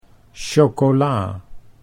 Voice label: "chocola", Dutch

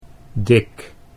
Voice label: "dik", Dutch

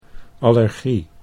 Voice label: "allergie", Dutch

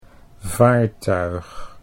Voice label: "vaartuig", Dutch

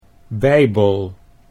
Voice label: "bijbel", Dutch

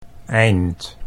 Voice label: "eind", Dutch

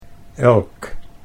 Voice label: "elk", Dutch

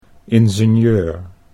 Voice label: "ingenieur", Dutch

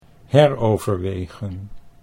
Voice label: "heroverwegen", Dutch

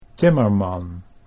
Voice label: "timmerman", Dutch

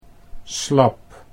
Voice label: "slab", Dutch

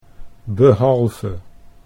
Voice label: "behalve", Dutch

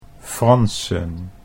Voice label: "Fransen", Dutch

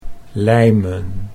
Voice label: "lijmen", Dutch

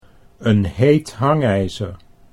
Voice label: "'n heet hangijzer", Dutch

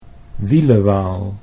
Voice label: "wielewaal", Dutch

